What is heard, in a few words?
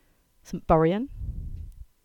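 English; Saint Buryan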